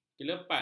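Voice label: "kelepat", Malay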